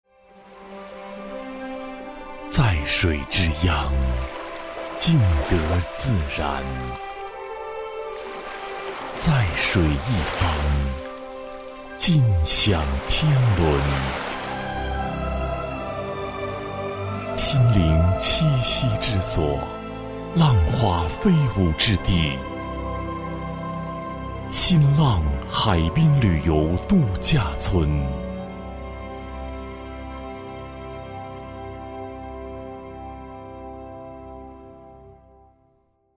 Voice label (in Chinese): 男 广告, 国语男声配音 磁性; 心浪海边旅游度假村